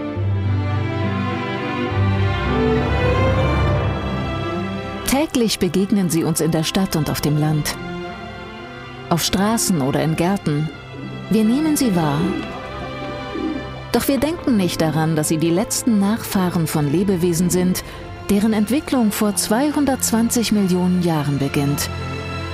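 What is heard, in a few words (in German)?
Kommentar Vögel; ZDF Info; LOFT VOICES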